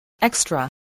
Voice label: "extra", voice

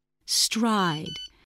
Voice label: "stride", spelling

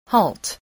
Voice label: "halt", spelling

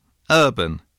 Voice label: "urban", English

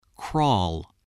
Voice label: "crawl", spelling

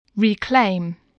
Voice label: "reclaim", voice, english

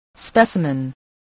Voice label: "specimen", English voice

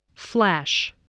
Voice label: "flesh", spelling

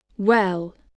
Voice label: "well", spelling

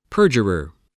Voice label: "perjurer", voice, English

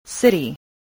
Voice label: "city", English, voice

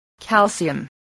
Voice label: "calcium", spelling

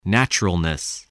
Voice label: "naturalness", voice

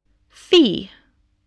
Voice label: "fee", spelling

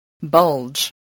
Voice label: "bulge", spelling

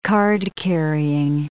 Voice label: "card-carrying", English

